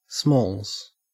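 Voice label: "smalls", Australian English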